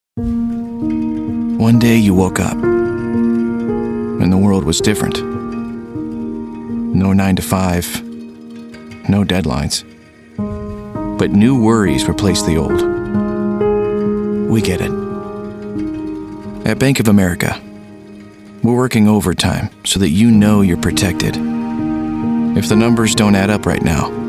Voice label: Warm Reassuring Crisis Commercial